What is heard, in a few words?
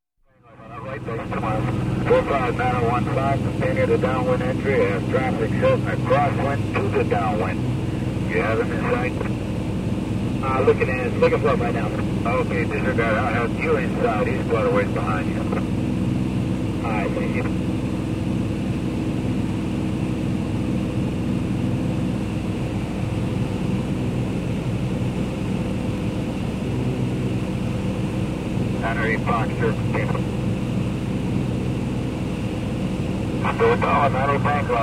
Helicopter Pilot On Radio